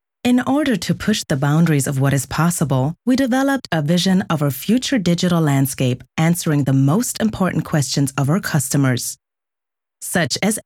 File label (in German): Imagefilm, frauen; sprecher; Namics Covestro